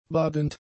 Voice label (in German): "badend", German; adjectives